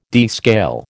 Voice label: "descale", American

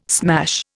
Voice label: "smash", Indian

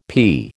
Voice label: "pea", American

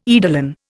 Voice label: "eidolon", Indian

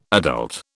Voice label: "adult", British

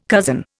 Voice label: "cozen", Indian

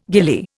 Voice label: "ghillie", Indian

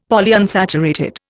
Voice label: "Polyunsaturated", Indian; Dictionary

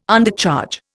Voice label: "Undercharge", Indian

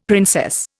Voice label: "princess", Indian; Dictionary

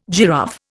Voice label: "giraffe", Indian

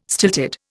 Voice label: "stilted", Indian

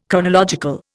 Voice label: "chronological", Indian

